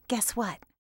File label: "guess what", voices